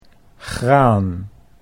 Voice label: "graan", Dutch